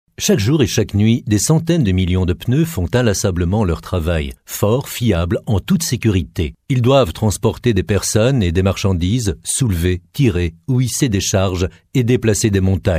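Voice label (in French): Belgian French, male, narration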